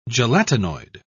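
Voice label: "gelatinoid", British English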